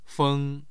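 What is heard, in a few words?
"feng", Mandarin Chinese, tone 1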